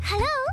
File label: hello i speak english